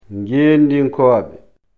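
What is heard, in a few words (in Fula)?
"ngeenndinkoobe", Fula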